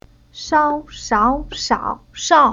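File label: "Shao", Chinese syllable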